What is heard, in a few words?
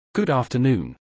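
"good afternoon", British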